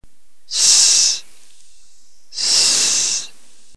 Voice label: sigma, ntgreek alphabet phoneme